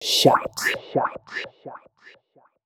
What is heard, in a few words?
male, Dance spoken hooks; Mutekki Media; Dance Ultra Voice VOL-1